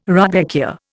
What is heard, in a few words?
"rudbeckia", Indian